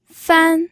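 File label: "fan", pinyin, first tone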